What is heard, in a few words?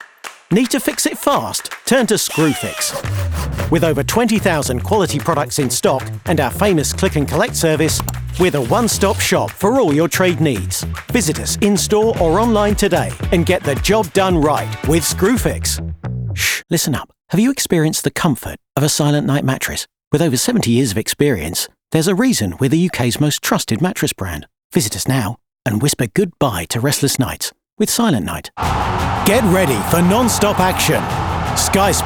COMMERCIAL